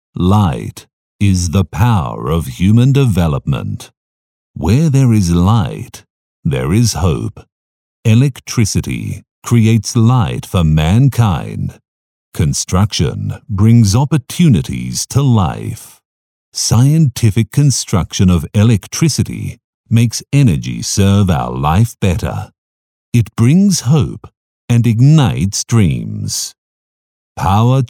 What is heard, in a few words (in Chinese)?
英文宣传片配音; FUJIAN